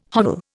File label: "hovel", Indian